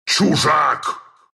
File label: attack, ru; Ursa; Dota 2